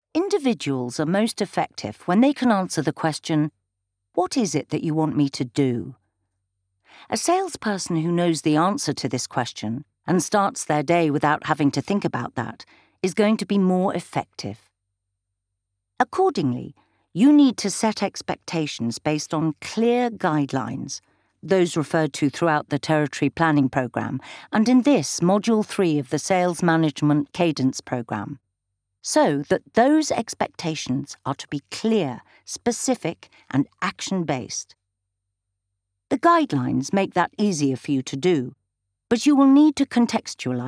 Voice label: e-Learning